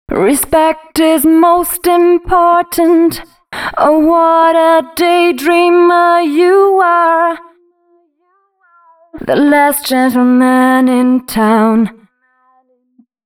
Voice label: female a 133, hooklines, Dance Ultra Voice; Mutekki Media; Dance Ultra Voice VOL-1